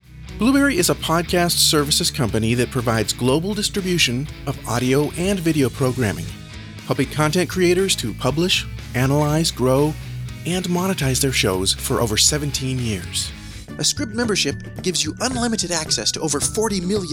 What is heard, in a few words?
Commercial VO